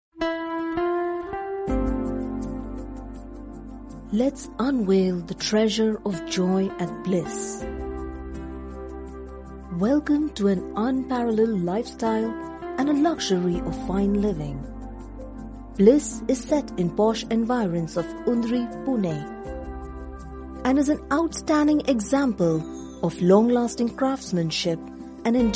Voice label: English Indian F Advert; accent of india